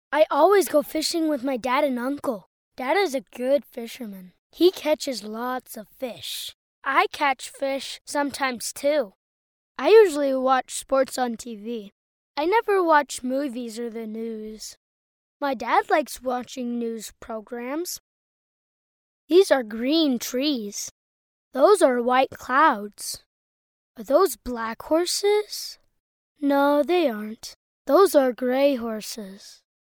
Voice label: child voice over